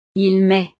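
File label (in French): "il met", French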